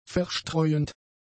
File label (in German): "verstreuend", German; adjectives